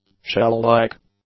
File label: "shell-like", English; adjectives